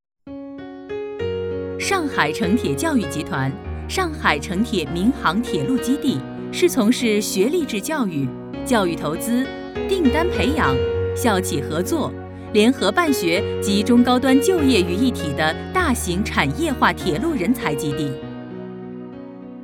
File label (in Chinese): 女 宣传片; 上海民航教育